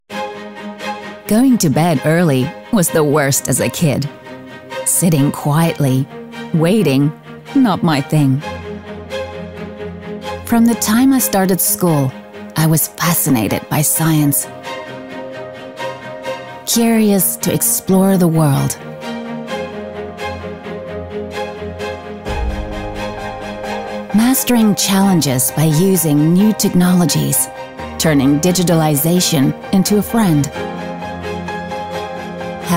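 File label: German, female, accent English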